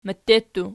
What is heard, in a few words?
"mette", Archi